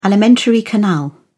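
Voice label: "alimentary canal", British English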